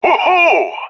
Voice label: cheer; Counter Strike; CS:GO; leet epic